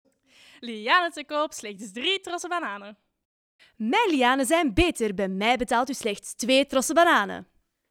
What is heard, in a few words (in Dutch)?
Reklame